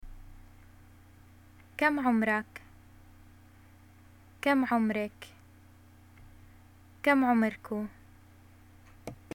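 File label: "getacqua how old r u", Jordanian Arabic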